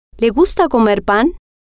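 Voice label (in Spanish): le Gusta Comer Pan, spanish, female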